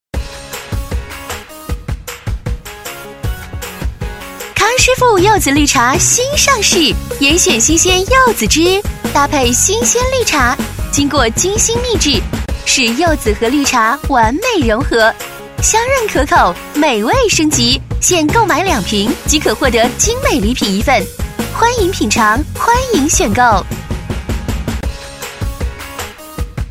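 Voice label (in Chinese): 女S112 国语 女声 广告-柚子绿茶 活力 积极向上 神秘性感 调性走心 亲切甜美; 康师傅柚子绿茶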